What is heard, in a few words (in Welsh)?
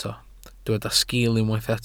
"sgil", Welsh